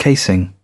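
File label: "casing", British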